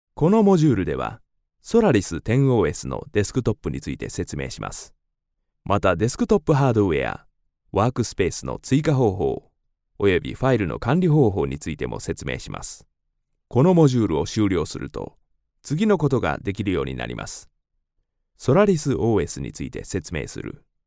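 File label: Japanese, male, narration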